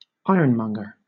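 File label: "ironmonger", British English; pronunciations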